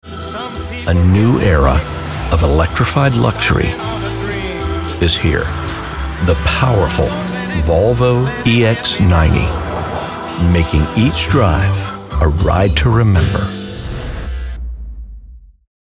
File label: Electric Vehicle Commercial